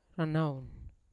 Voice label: "ranaun" to listen, Yawa